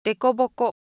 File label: Japanese Texture, Ideo Phones